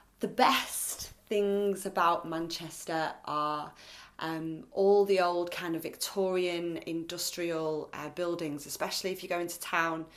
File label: MANCHESTER FEMALE